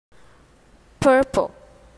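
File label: "purple", English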